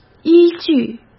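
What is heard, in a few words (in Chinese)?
"依据", Mandarin Chinese; hsk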